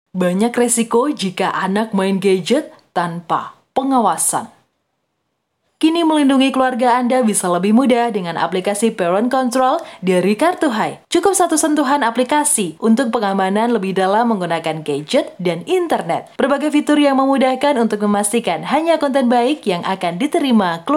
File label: CASUAL INDONESIA